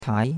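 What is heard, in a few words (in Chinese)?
"tai2" Chinese; Cours de Chinois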